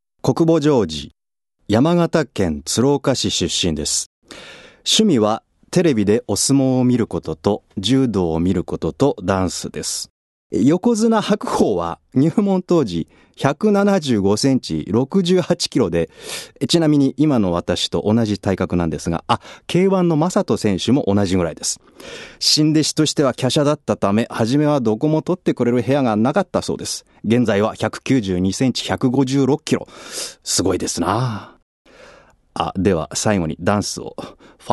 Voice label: freetalk, male voice

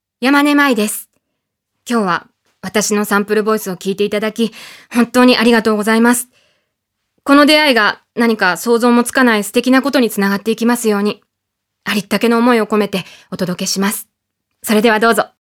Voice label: freetalk, female voice; フリートーク

